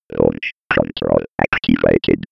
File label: computer voice